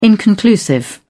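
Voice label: "inconclusive", British English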